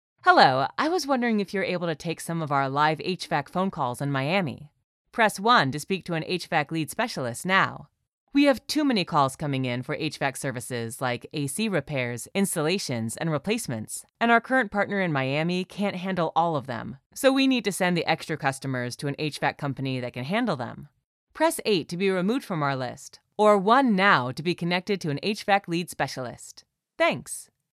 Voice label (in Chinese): 外籍英女 语音应答系统